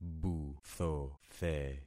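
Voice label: "buthothe", concatenated syllables; Affixing experiment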